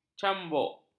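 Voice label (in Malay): "cambuk", Malay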